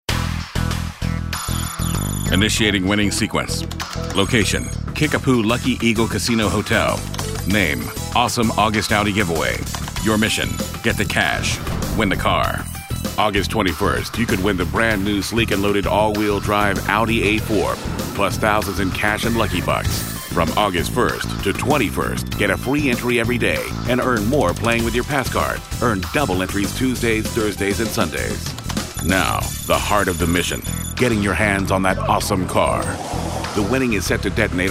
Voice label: Dry

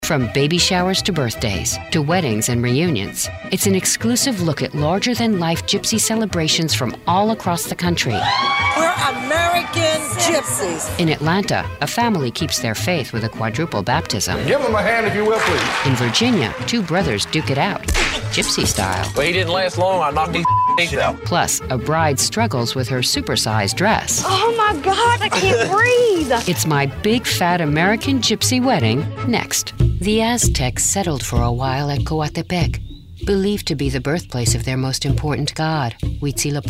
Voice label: TV Promo

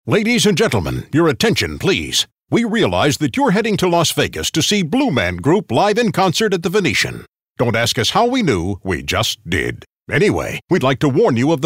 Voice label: Tongue In Cheek

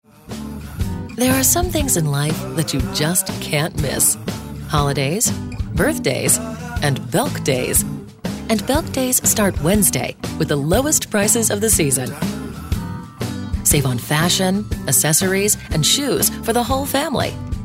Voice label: Soft Sell

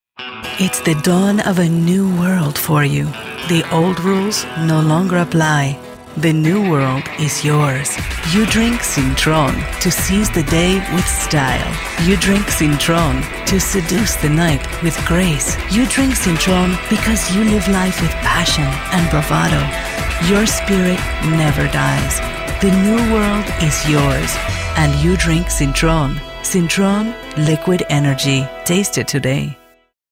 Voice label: Accent Hispanic

